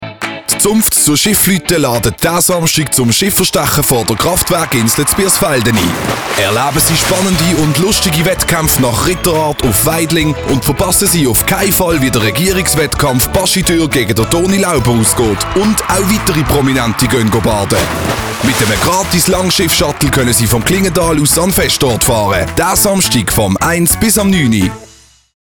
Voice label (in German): radiospot